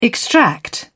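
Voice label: "extract", British English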